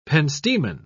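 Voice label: "pentstemon", British English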